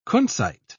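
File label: "kunzite", British English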